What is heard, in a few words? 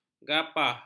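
"gapah", Malay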